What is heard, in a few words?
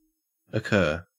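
"occur", Australian English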